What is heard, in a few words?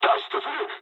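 bailout mood med, speech japanese; War Thunder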